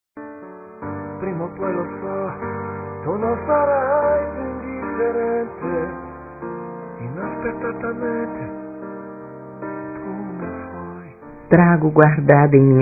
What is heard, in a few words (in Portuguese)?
PRIMEIRO ANO F; Dia Dos namorados; fem; Telemensagens 2017